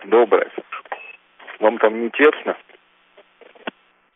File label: Space to Ground; Expedition 39